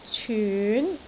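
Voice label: "cyun2", Cantonese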